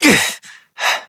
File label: Operator Voice, en; Operator Mission; Generic Land